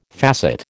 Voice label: "facet", American